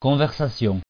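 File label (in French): "conversation" French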